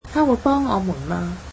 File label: cantonese can she help us